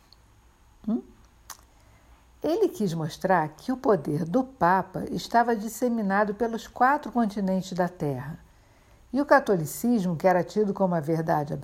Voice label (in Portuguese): Audioguia resumido Piazza Navona FONTANA 4 FIUMI APOTEOSE MENSAGEM OCULTA